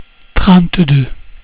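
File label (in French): "trente deux", French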